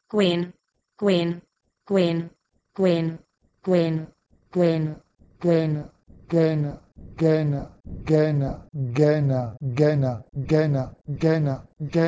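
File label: Proto-Indo-European gwen to Bosnian Zena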